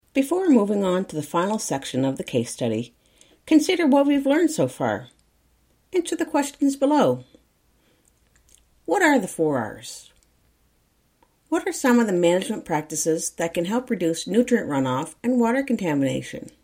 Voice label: narration review